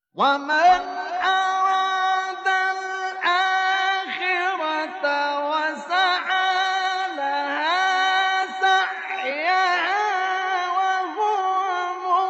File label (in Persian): فرازی از تلاوت سوره اسرا; استاد مصطفی اسماعیل